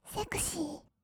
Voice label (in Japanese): "セクシー", kawaii voice; ASMR; 1200 kawaii voice!!; 音ゲー